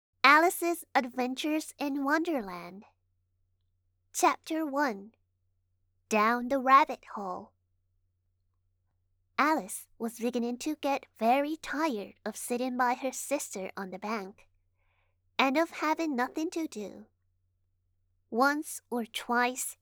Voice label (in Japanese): 英語サンプル